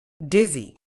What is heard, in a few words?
"dizzy", uk